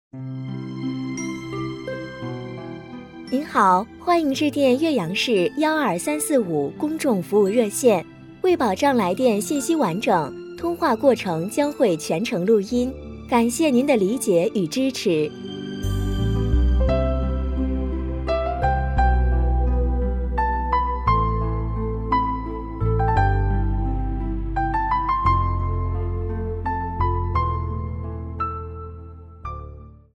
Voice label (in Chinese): 彩铃服务热线